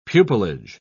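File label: "pupillage", British English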